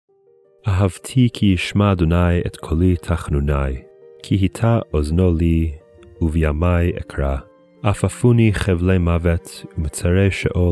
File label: poetry and prayer psalm hebrew